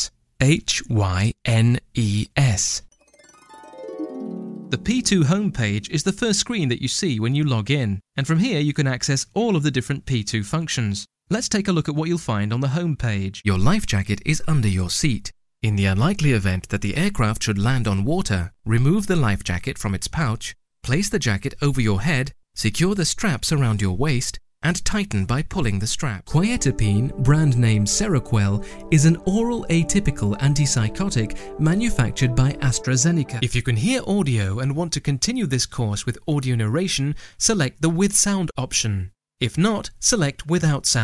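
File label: British English, E-Learning; GoLocalise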